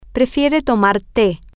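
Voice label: she prefers to drink tea, Spanish, female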